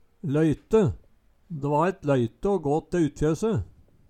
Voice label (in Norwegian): loyte, Numedalsmål; løyte